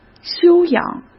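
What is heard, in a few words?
"休养", Mandarin Chinese; chinese-flashcards; hsk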